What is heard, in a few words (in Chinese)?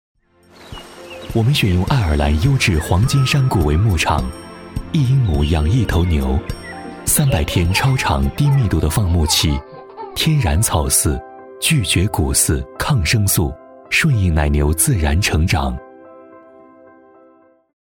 男 专题 温暖